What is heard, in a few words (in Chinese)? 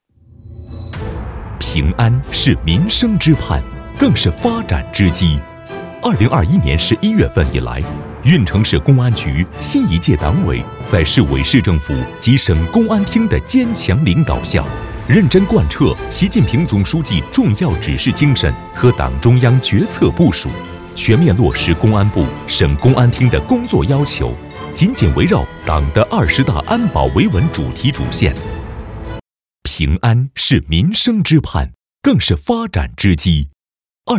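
男【宣传】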